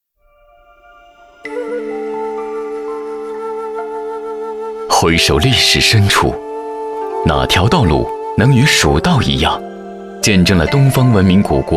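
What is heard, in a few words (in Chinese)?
男505 自然讲述, 男505年轻磁性男声配音; 四川博物馆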